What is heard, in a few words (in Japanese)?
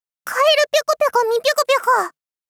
かえるぴょこぴょこみぴょこぴょこ！ 妹系×幼女×高音域